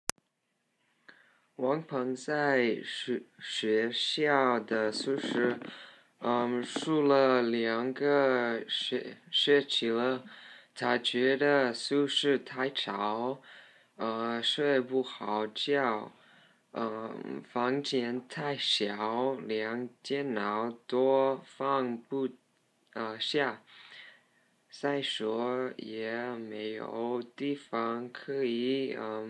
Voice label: dialogue narrative